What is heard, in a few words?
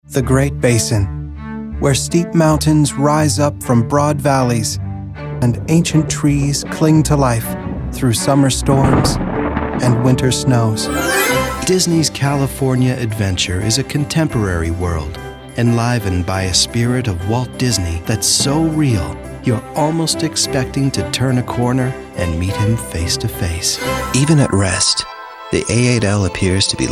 Narr